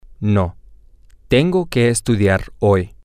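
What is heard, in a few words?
Spanish, male; no I have to study today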